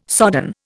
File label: "sodden", Indian